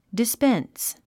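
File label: "dispense", English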